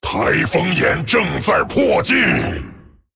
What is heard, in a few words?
Male Dragon; Running out of time; ▶台风眼正在迫近！ ▶The eye of the storm approaches!; 风暴巨龙迦拉克隆